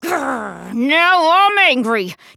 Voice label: grrr now i'm angry